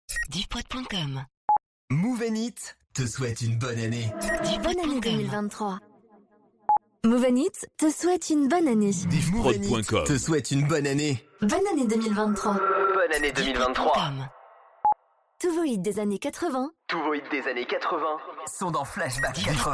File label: Drops A cappellas